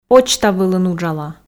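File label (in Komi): почта вылын уджала, Komi, female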